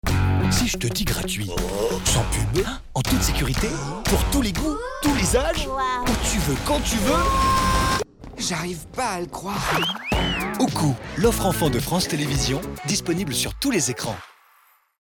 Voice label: spot pub; OKOO

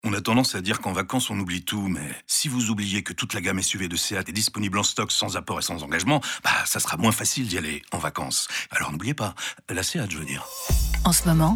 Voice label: RADIO; SEAT

